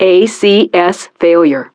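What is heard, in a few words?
"ACS Failure", English; Betty; DCS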